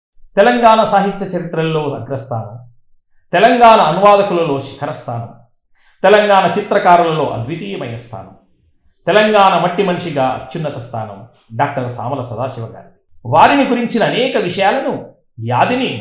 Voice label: telugu